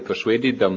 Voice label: persuaded THem, Scots